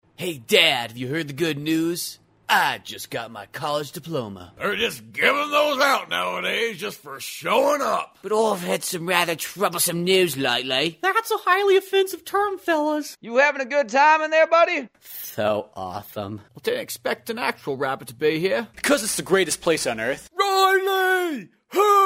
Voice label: voice over